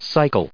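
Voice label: "cycle", US English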